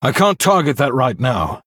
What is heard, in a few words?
Male Human error target